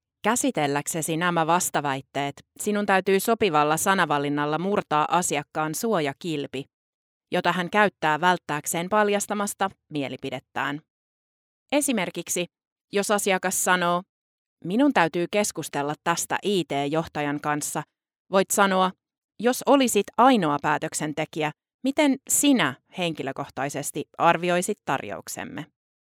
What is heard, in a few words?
female finnish voice over narrative